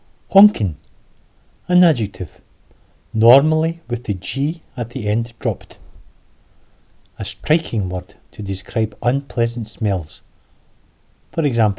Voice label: honkin, schottisch; schottisch-fuer-anfaenger